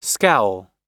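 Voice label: "scowl", American English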